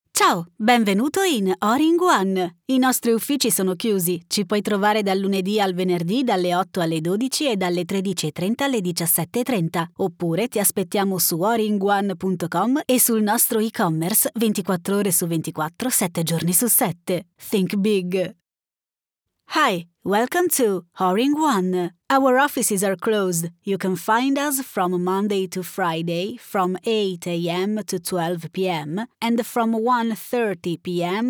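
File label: IVR ita engl, male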